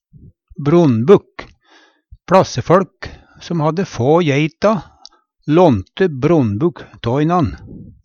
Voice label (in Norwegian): "bron'bukk", Numedalsmål; Substantiv hankjønn